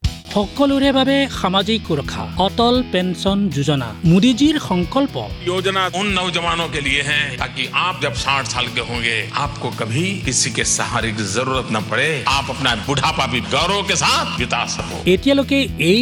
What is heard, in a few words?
Atal Pension Yojna, Assamese